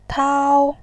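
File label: "tao", Mandarin Chinese, first tone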